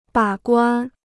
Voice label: "把关", Chinese